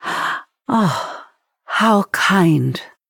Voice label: Female Night Elf Mirror Greetings

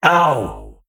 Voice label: Male Mech Death

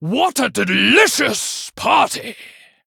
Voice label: Male Venthyr Play

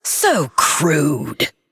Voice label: Female Undead Attack

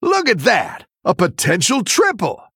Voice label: Male Human Triple

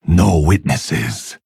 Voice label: Male Pandaren Attack

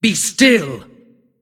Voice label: Female Titan Attack

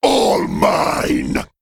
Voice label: Male Worgen Attack

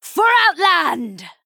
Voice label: Female Night Elf Attack

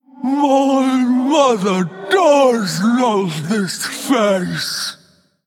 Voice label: Female Faceless Play

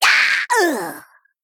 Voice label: Female Goblin Death

